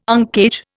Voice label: "uncage", Indian

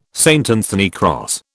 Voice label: "St Anthony cross", British

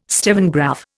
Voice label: "stevengraph", Indian

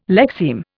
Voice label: "lexeme", Indian

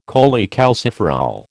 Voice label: "cholecalciferol", American

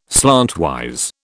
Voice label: "slantwise", British

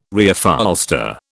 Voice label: "Reupholster", British

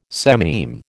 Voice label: "sememe", American

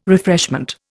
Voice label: "refreshment", Indian

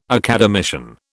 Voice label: "academician", British

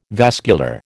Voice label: "vascular", American

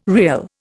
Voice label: "rial", Indian

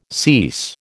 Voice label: "cease", American

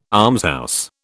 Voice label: "almshouse", British

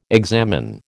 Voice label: "examine", American

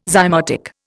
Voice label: "zymotic", Indian

